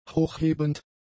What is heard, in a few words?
"hochhebend", German; adjectives